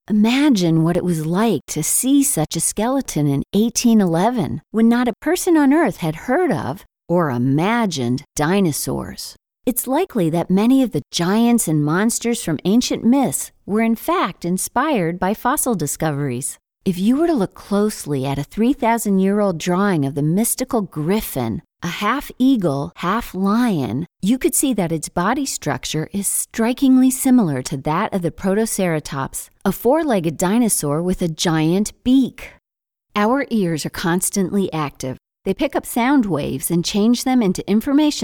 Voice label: Explanatory Video

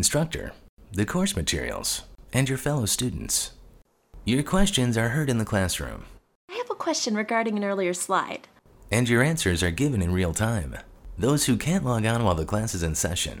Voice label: University MBA Live Narration; Valparaiso

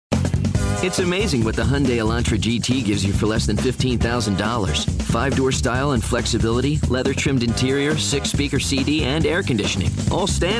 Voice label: Polish Commercial; Commercial Demo

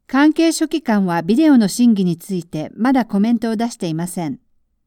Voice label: Japanese

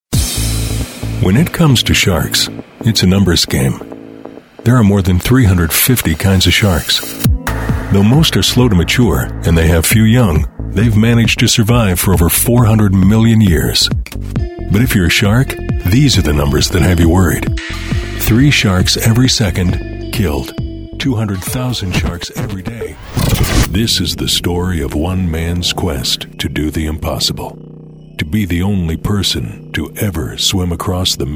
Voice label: dramatic narrative

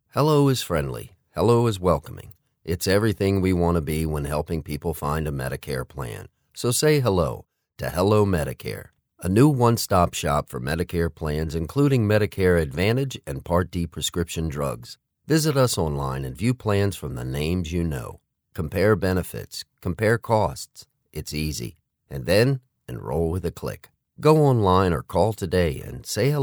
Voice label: Commercial Spot; Medicaire

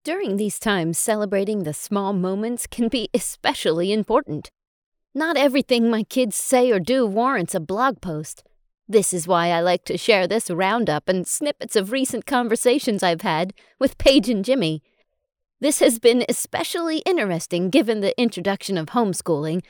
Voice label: Parenting Podcast Funny

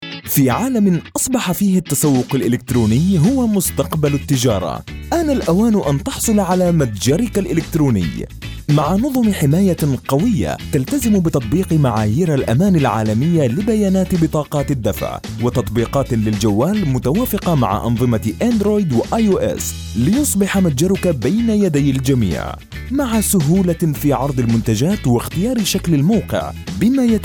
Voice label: Explainer Video voiceover Standard Arabic

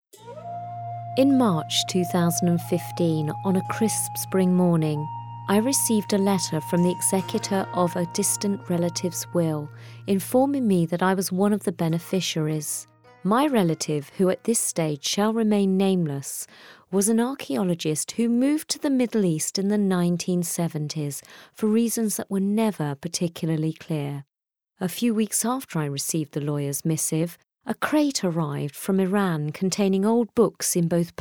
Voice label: audiobook

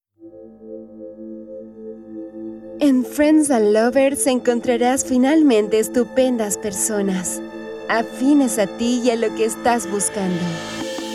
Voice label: Adult

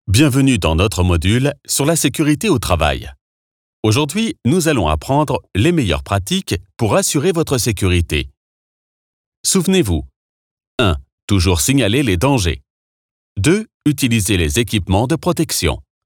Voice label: elearning, French Male Voice

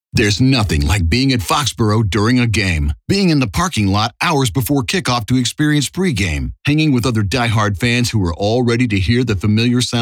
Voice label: Radio; Patriots Radio; Gulf Oil

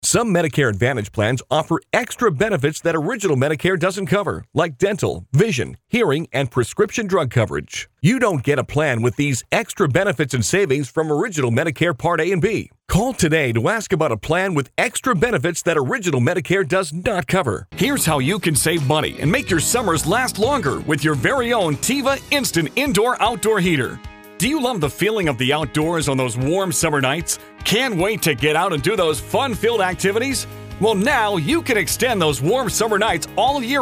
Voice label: Direct Response Infomercial

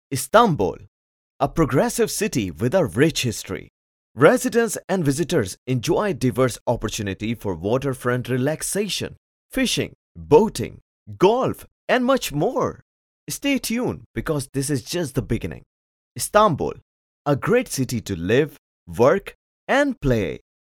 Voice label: Tour Guide VO

